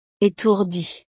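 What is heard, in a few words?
"etourdie", French; estados animo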